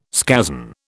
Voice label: "scazon", British